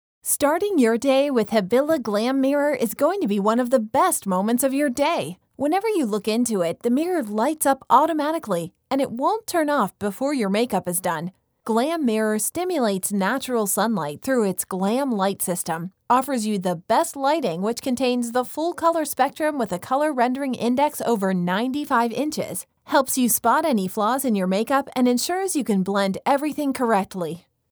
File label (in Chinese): 美妆镜旁白文案; Chloé